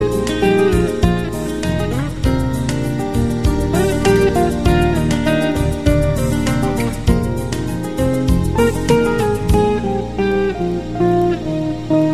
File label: radio news